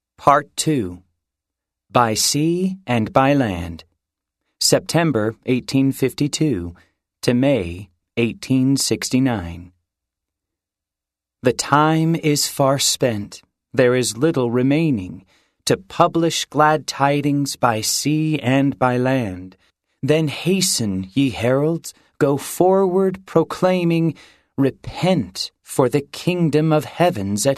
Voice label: male voice; part 2 by sea and by land